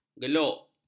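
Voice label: "geluk", Malay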